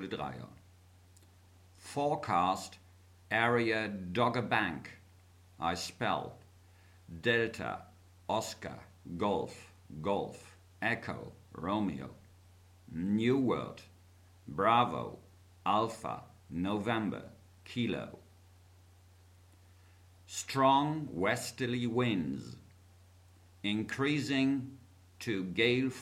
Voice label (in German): Seefunktext